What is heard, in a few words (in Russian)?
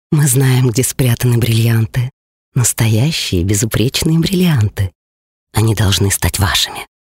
jenskiy golos